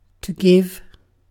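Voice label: "give", British English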